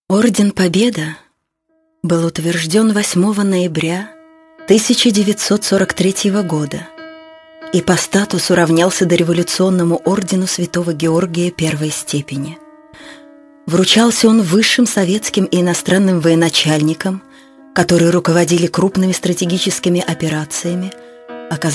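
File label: Russian, female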